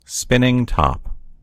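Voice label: "spinning top", American English; gcompris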